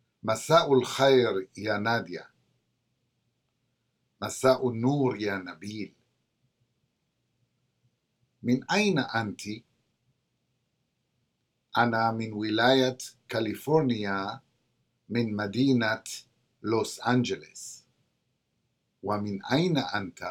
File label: Conversation; SALAMAAT